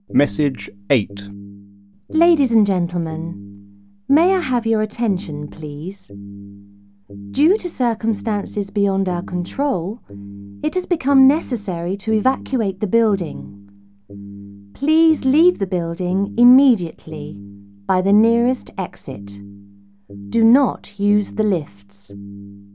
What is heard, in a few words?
Evacuation Message: 8 (Female)